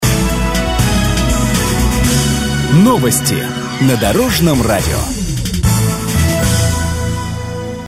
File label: News on radio; Dorozh radio